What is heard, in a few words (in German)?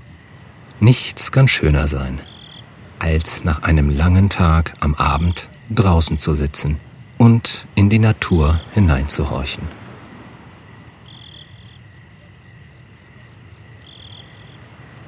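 grillen einschlafen männlich; Orte der Entspannung; Phantasiereisen; Tiefenentspannung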